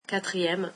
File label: "fourth", French